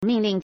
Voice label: "ming4ling4", Chinese